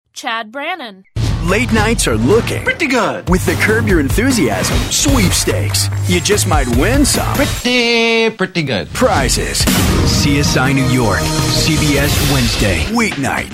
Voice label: Promo